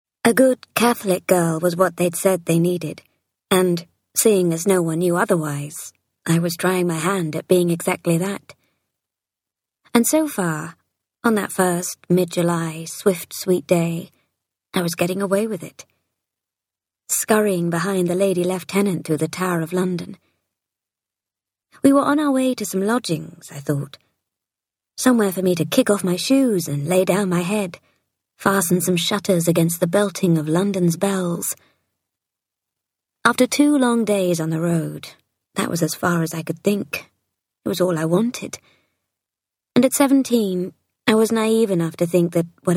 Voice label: UK Historical Fiction